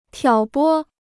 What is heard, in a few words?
"挑拨", Chinese